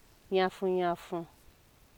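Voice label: "yanfuyanfu", Nigerian Pidgin, female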